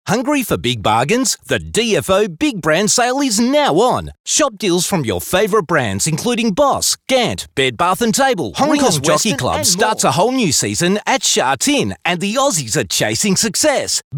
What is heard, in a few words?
Hard Sell